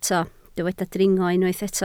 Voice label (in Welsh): "dringo", Welsh